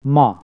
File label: "today", Hungarian